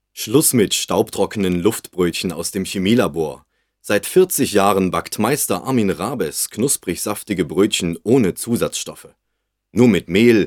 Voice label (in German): Werbespot; Demo Werbespot 1 (Regie: Willi Meyer)